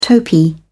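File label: "topee", British English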